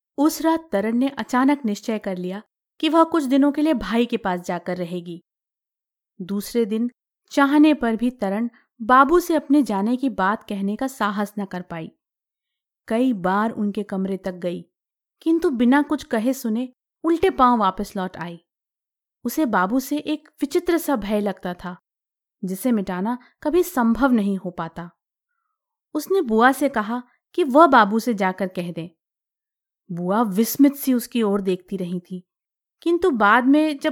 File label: Hindi F Audiobook